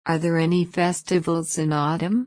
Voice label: low; four seasons